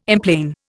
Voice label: "emplane", Indian